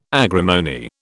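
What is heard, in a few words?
"agrimony", British English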